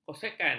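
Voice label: "kosekan", Malay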